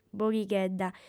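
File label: "bonighedda", female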